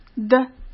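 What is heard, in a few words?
"的", Mandarin Chinese; hsk; chinese-flashcards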